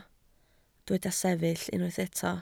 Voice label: "sefyll", Welsh